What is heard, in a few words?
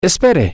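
wait, spanish, male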